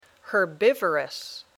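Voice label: "herbivorous", English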